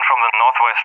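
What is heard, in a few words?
"from the NW", English speech; Allied Flight; DCS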